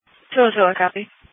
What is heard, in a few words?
Police Dispatch